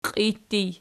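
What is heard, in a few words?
"qiij" ergative, Archi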